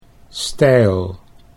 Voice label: "stijl", Dutch

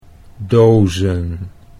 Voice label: "dozen", Dutch

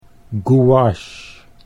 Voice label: "gouache", Dutch

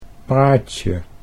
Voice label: "praatje", Dutch

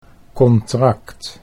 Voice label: "contract", Dutch

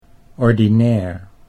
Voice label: "ordinair", Dutch

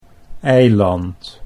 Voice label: "eiland", Dutch

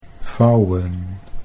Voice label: "vouwen", Dutch

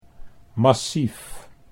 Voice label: "massief", Dutch; 'solid'

